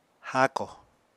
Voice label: "háako", Apatani

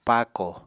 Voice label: "paako", Apatani

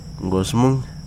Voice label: "knee", Wahgi; lae unitech

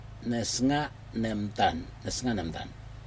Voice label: nesga negtam, eye, Aneityum

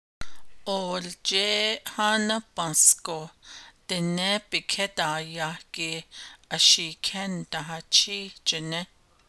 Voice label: navajo; Iterative (R)

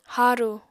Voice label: "harù", apatani

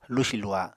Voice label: "lusi-luha", Apatani

